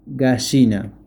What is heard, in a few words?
Chicken spanish